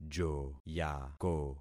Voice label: "joyako", concat syllables; Affixing experiment

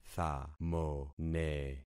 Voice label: "thamone", concat syllables; Affixing experiment

